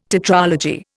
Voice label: "tetralogy", Indian